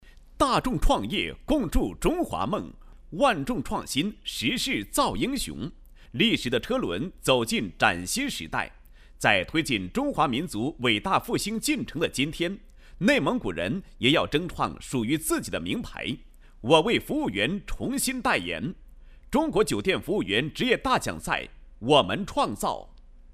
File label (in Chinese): 男 宣传片 宣传配音; 内蒙古首届酒店服务员职业大奖赛宣传配音